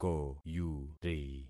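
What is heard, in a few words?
"koyuri", concatenated syllables; Affixing experiment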